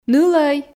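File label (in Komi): нылӧй, Komi, female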